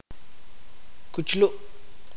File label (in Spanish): "cuchillo", chuj